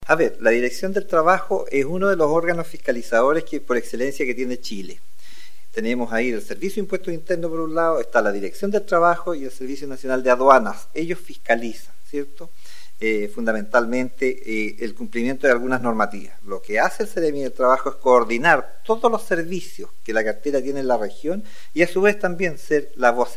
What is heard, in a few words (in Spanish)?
Cuña